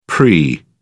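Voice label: "pre", English, British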